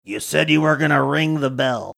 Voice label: you said you were gonna ring the bell, gruff voice